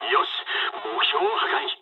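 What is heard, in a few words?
bomb success mood low, speech japanese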